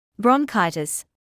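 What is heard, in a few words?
"bronchitis" English, British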